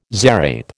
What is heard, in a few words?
"zarape", American